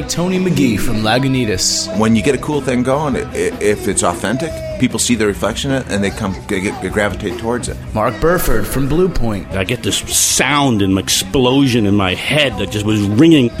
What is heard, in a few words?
Beer Sessions Radio Commercial